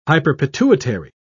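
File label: "hyperpituitary", British English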